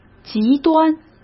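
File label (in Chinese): "极端", Mandarin Chinese; hsk; chinese-flashcards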